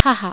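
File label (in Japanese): "haha", Japanese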